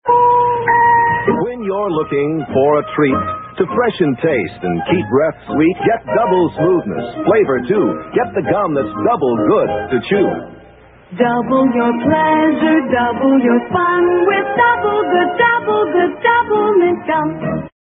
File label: commercials; doublemint gum